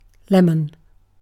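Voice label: "lemon", British English; pronunciations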